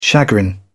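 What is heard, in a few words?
"chagrin", British English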